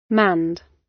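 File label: "manned", British; Space, the planets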